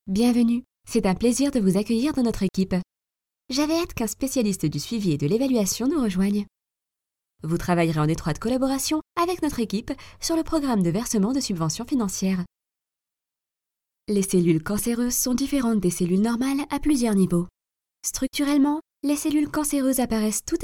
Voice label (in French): souriant serieux voyance anglais; dictor